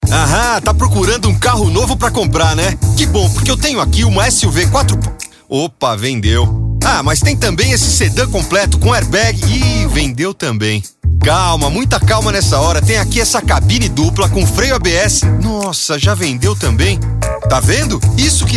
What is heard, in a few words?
Spot; MercadoLivre Pensa A Gente Anuncia 30